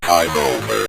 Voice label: time over, synth voice; Kenney's Sound Pack

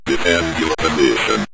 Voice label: defend Your Position, Synth Voice; Kenney's Sound Pack

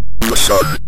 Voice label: planet sun, Synth Voice; Kenney's Sound Pack; Synth Voice 1

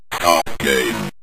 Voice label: stop Game, Synth Voice; Kenney's Sound Pack

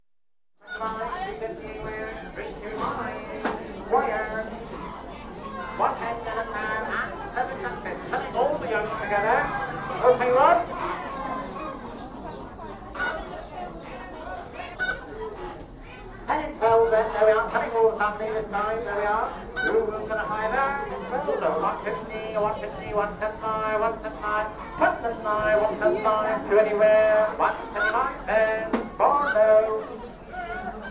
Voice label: Poultry Auction - Some Speech; Livestock; BBC Sound Effects Library

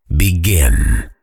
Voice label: begin, Voiceover Pack Fighter; Kenney's Sound Pack

